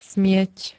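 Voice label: "smieť", Slovak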